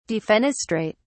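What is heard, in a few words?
"defenestrate", British English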